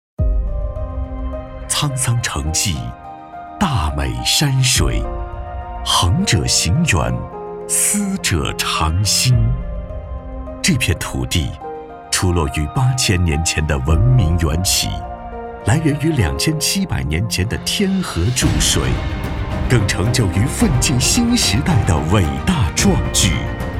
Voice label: 男 专题 时代感; 未来之城

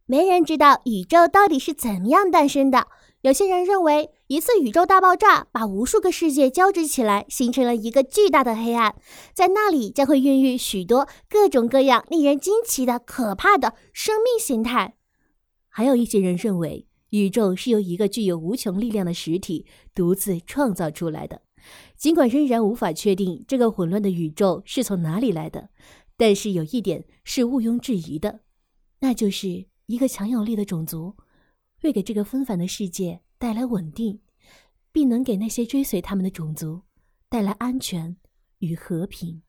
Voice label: 女 多声线 背景解说; 魔兽世界

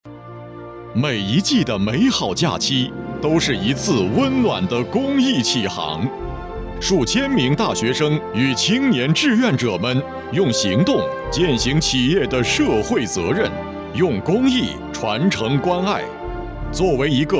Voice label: 男 宣传片

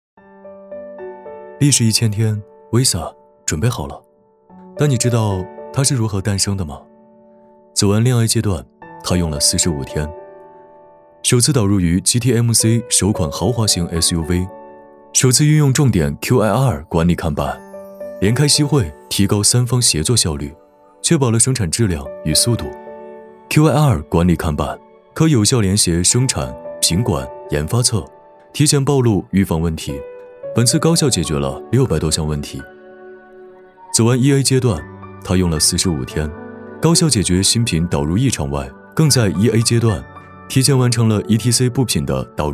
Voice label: 男 汽车宣传片 年轻走心; 广汽丰田威飒

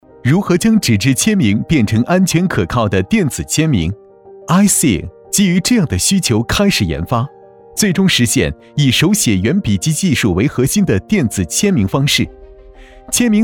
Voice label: 男 科技专题 技术赋能 科技感

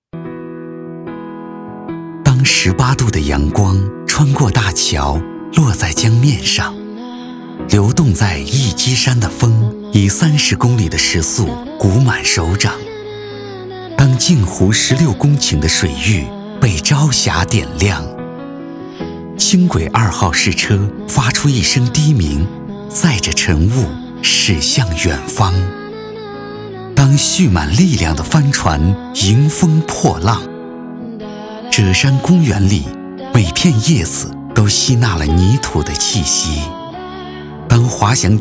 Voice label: 男 广告 温暖广告

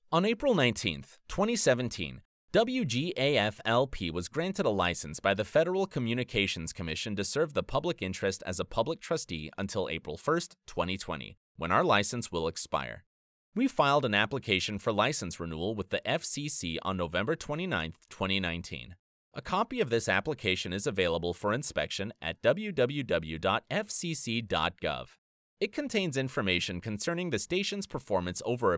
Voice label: 联邦通信委员会宣传

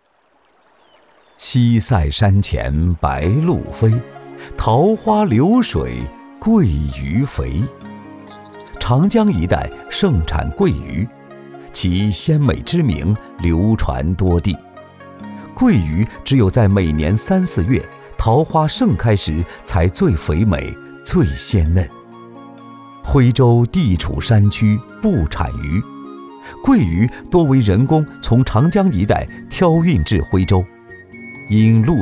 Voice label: 男 记录片 欢快; 蟹鱼

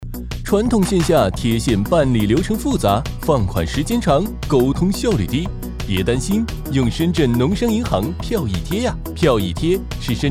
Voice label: 男,MG,农商行; 飞碟说

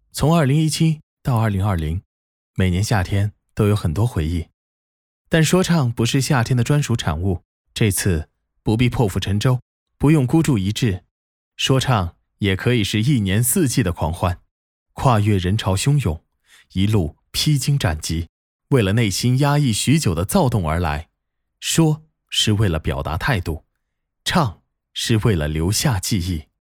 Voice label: 男,独白; 一周说唱歌手

